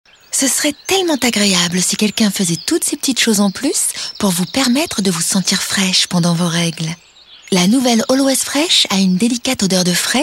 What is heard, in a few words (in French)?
Narration simple complice; Always Fresh